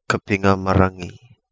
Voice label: "Kapingamarangi", Kapingamarangi